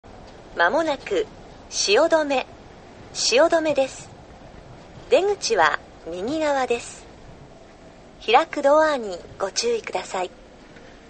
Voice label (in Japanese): 新橋発車後, 車内放送; 汐留到着前; yurikamome